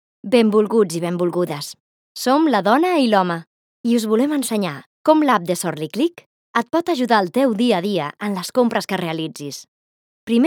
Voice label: catalan promos

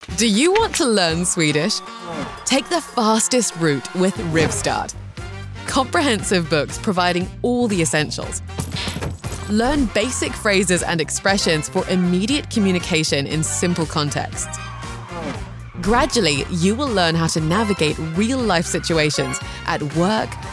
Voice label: English British radio imaging

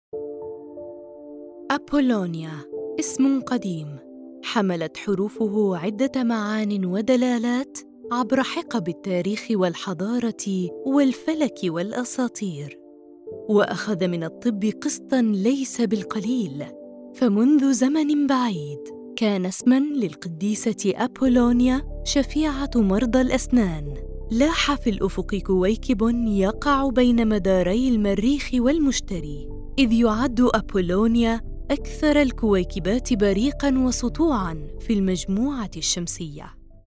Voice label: arabic narration

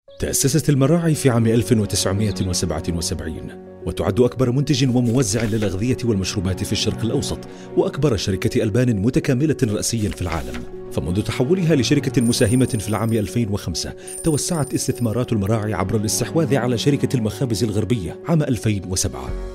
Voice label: arabic corporate videos

